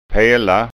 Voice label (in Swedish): "pela", skanska ord